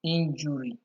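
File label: "injury", Nigerian Pidgin, male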